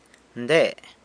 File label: "nde", San Lucas Quiavini; this one